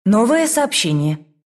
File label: new message, ru; dota2